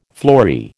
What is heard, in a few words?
"flory", American